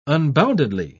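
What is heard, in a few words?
"unboundedly", British English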